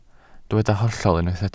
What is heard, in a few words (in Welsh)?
"hollol" Welsh